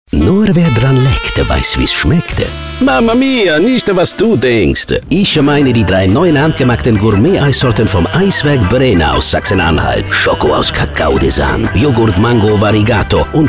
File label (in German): Funkspot; Eiswerk Brehna; Jung Frech Lecker